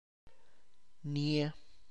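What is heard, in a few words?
Tlacochahuaya Zapotec; clear light clean white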